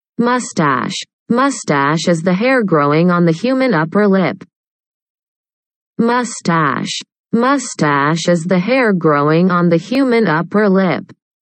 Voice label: moustache, Spelling Bee